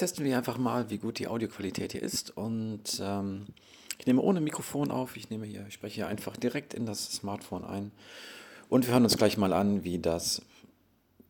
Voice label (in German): Testaufnahme Xiaomi 12X